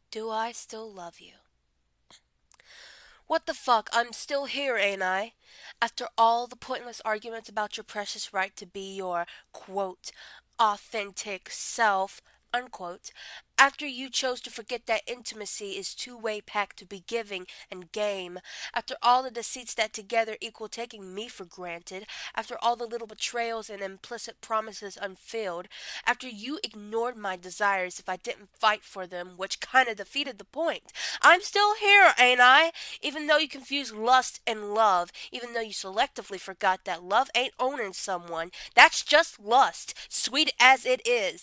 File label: Slam poetry, Angry, F; Slam on Love; Not so loving